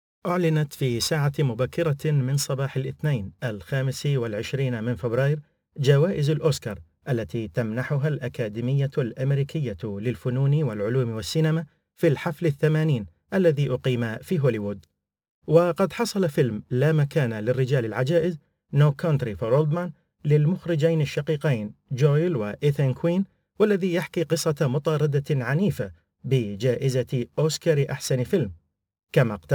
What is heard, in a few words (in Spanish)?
locutor arabe; Arabic male voice over